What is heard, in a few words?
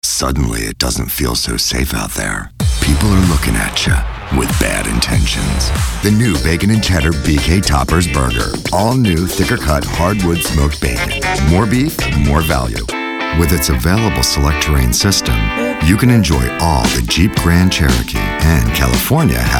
Commercial